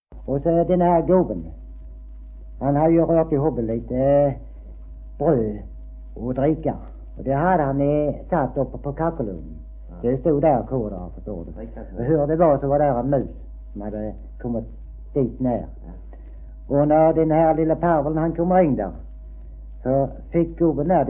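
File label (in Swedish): Degeberga Skåne, svenska sagor dialekter; Folkminnen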